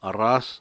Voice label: "brasas", Cucapá

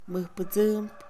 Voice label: Mixe, Mujer; ser mejor; Emociones; INALI

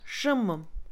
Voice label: siempre, Mixe, Mujer; INALI

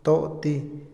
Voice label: arrugado, Otomí, hombre; Percepción; Vocabulary; INALI

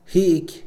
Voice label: "cigarro", Mixe, hombre; Moderno

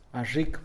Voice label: "sonreír", Mixe, hombre; Emociones; INALI

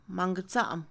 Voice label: Mixe, Mujer; mango; INALI

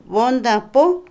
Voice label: pradera, potrero, Otomí, mujer; INALI

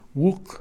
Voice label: siete, Kakchikel, Números

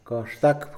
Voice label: "orar", Mixe, hombre; Religión

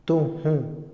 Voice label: "música", Otomí, hombre; Moderno; INALI

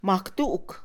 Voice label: once, Mixe, Mujer; Números; INALI

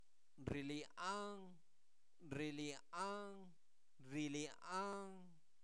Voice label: Zapoteco Albarradas, santo domingo albarradas; INALI; verbos; se quebra

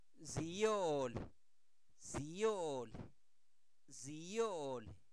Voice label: alto, Zapoteco; Zapoteco Albarradas; adjetivos; santo domingo albarradas; INALI

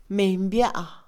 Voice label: "playa", Mixe, Mujer; INALI

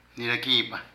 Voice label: Sastre, Zapoteco de Petapa; Ropa; Vocabulary

